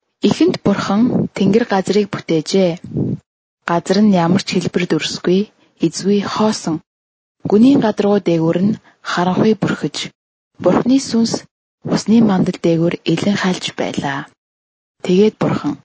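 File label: Mongolian, female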